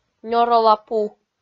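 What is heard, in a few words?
"нёрола пу", Komi, female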